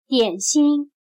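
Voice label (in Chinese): "点心", Chinese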